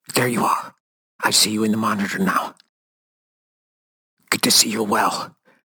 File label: VO broken radio; SpaceshipDemo